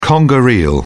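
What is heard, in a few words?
"conger eel", British English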